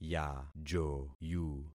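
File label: "yajoyu", concatenated syllables; Affixing experiment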